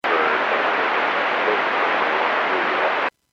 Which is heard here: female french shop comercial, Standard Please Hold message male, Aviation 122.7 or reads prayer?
Aviation 122.7